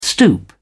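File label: "stoop", English, British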